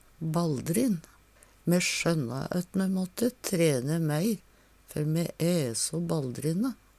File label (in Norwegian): balldrin, Numedalsmål; Ho æ dugle balldrin.; Adjektiv